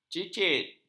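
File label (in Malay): "cicit", Malay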